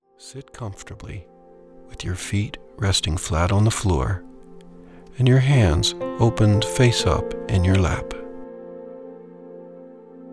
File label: Heart Meditation Technique; Heart Meditations